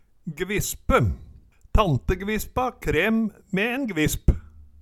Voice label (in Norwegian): gvispe, Numedalsmål; Tante gvispa krem me en gvisp.